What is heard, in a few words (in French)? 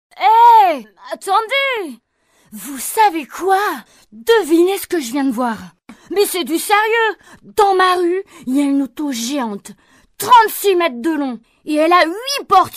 Voix petit garçon intrépide; Rémi; Tom-Tom et Nana